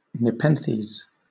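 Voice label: "nepenthes", English, British accent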